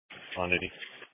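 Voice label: Police Patrol Dispatch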